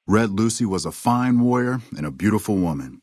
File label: male adult greeting; Fnv